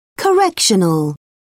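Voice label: "correctional", British English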